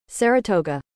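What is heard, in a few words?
"Saratoga", American English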